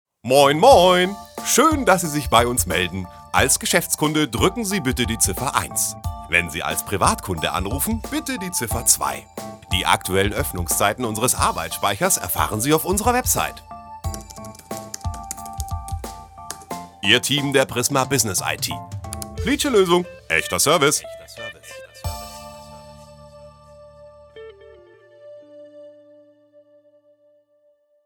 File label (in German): Tel Ansagen flappsig; Prisma1